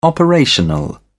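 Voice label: "operational", British English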